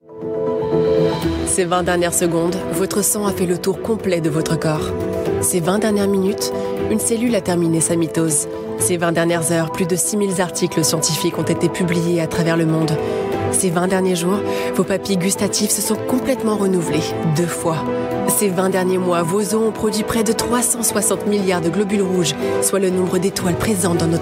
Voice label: french campaign voice corporate

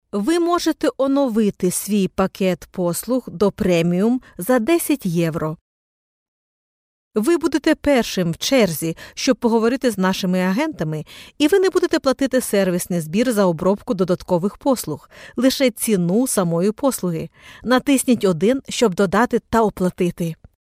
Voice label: ukranian corporate

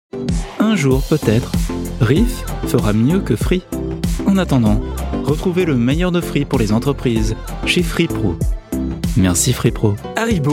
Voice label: french voice commercial french

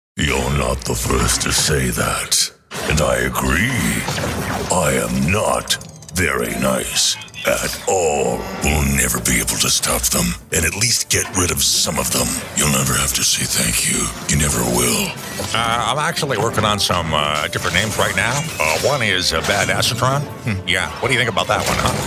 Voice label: american male characters

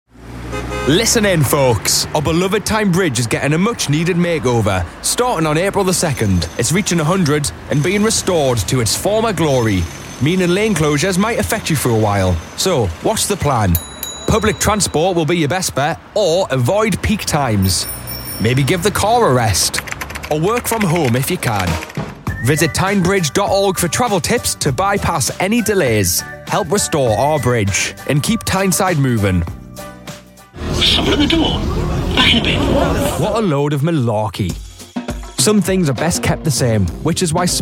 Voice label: Geordie voice commercial

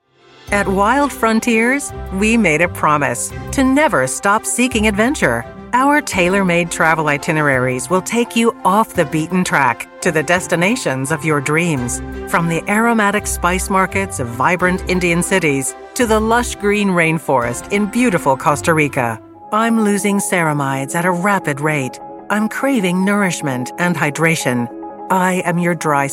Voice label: commercials

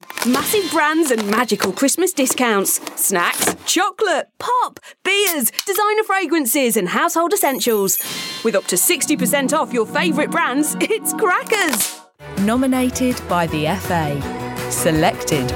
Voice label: west midlands montage

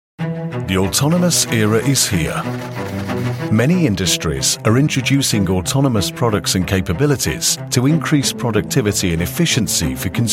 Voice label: corporate

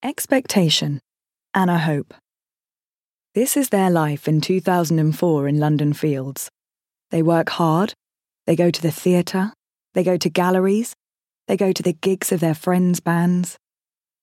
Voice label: audiobook, Smoky Confident British Voiceovers

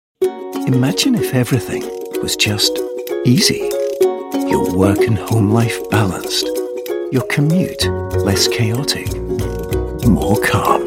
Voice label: commercials

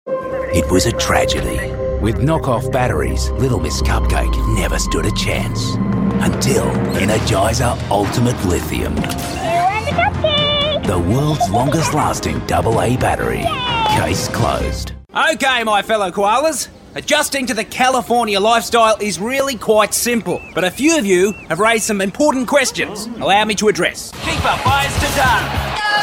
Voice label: australian, Australian Character Voice

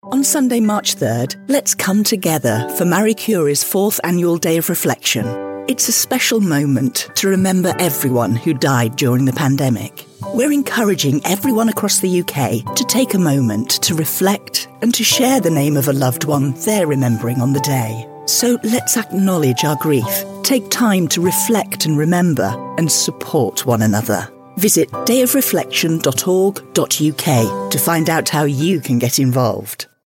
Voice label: radio charity ad; marie curie

